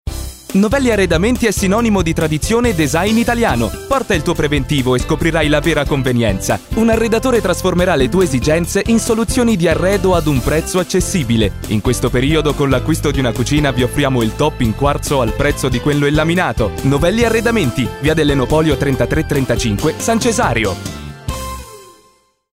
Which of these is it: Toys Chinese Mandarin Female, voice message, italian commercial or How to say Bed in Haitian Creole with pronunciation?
italian commercial